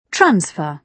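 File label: "transfer", British English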